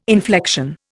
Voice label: "inflection", Indian